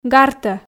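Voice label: "гартӧ", Komi, female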